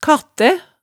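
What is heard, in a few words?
"kattæ", Hemsedal dialect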